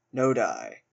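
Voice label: "nodi", Canadian English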